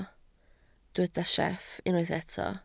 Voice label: "sieff", Welsh

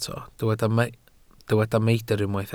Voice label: "meidr", Welsh

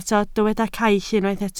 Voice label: "caill", Welsh

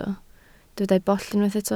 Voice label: "bollt", Welsh

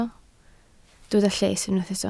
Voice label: "lles", Welsh

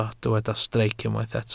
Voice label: "streic", welsh

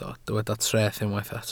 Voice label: "treth", Welsh

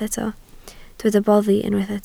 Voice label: "boddi", Welsh

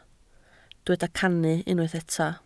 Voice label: "cannu", Welsh

